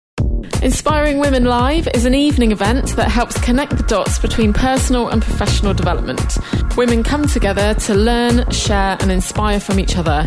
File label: Commerical; Inspire Women 2014